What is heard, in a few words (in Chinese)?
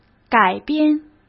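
"改编" Mandarin Chinese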